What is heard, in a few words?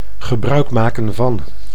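"gebruik maken van", Dutch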